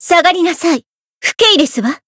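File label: Voice encounter, Japanese; sincere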